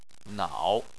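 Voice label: "nao", Chinese, third tone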